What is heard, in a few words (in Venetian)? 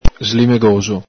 "slimegoxo", Veneto